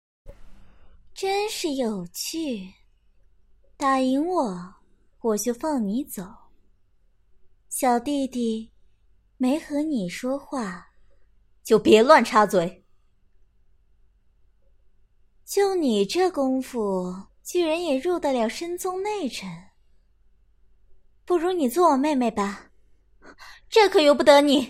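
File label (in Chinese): 动漫配音戏辞; 京剧猫; 墨紫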